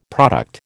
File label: "product", American